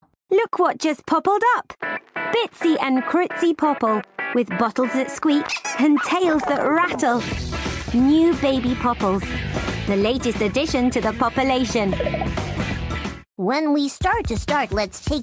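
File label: UK English, General; GoLocalise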